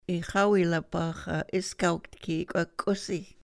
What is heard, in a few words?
ix awiilapaax a iskawktki ikw'ak k'usi, sahaptin